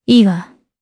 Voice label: Vox, jp; Ripine; kingsraid

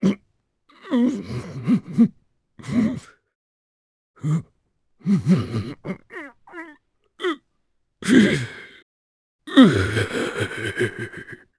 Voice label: Vox Sad, jp; voices; heroes; Kaulah; kingsraid

